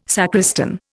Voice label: "sacristan", Indian